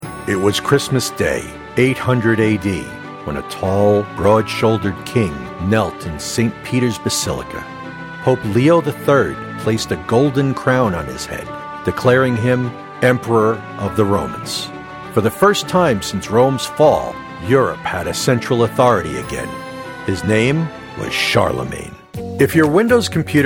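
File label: Commercial & Narration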